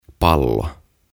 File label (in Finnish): "Pallo" Ball